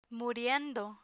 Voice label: "muriendo" Spanish; spanish gerund present participle; learn spanish